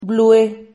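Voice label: "blue", Spanish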